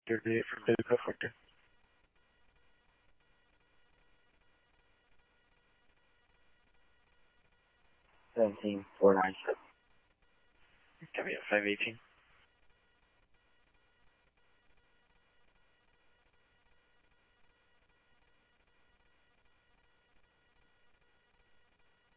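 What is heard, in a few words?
Mesa Police Department, scanner audio trunk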